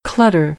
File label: "clutter", American English; ɚ - əɹ